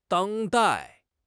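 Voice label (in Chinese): "当代", Chinese; HSK6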